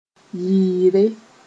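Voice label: "yɩɩre", Mossi; fear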